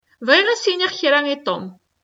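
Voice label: Kabyle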